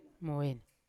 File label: "mavena", Yawa; none nothing; unat